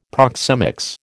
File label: "proxemics", American